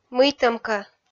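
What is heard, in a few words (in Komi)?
"мыйтӧм кӧ", Komi, female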